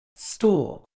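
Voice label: "stool", British English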